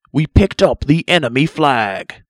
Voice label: blue pickup, announcer; construct

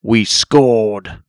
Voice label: announcer, blue scored; construct

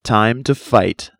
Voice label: fight time, announcer; red; construct

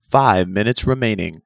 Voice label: announcer, red 5min; construct

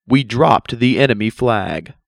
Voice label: red dropped, announcer; construct

